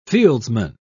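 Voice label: "fieldsman", English, British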